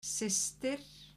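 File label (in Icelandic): "systir", Icelandic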